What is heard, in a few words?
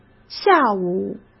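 "下午", Mandarin Chinese; hsk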